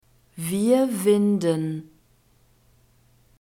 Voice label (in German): "wir winden", German; verbs present tense irregular